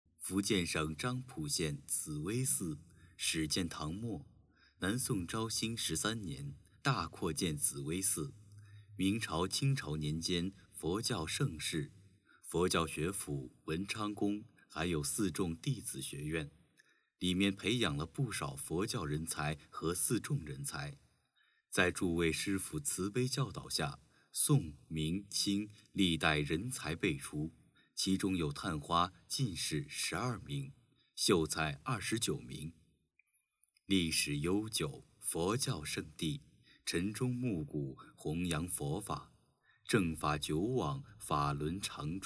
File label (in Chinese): 人物宣传样音; 源来